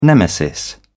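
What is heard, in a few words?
"nemesis", British English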